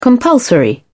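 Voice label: "compulsory", English, British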